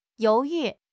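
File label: "you2 yu4", Chinese; 汉语口语新时代-初级下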